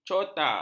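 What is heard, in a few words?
"cota", Malay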